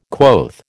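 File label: "quoth", American English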